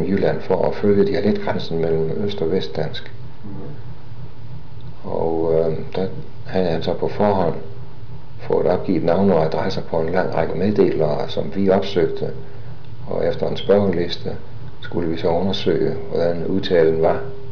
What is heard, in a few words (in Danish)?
dialekttur